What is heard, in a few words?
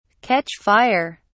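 "catch fire", British English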